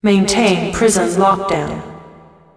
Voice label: Prison Announcer; Timesplitters 3; Mars